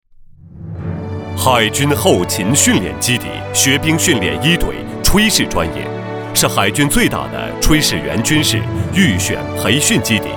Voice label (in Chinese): 男 专题 海军